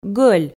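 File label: "гӧль", Komi, female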